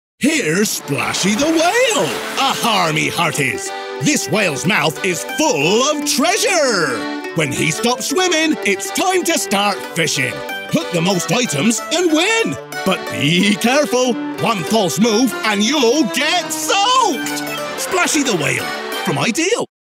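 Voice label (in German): British English, Kindlich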